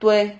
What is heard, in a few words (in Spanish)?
"tue", Silabas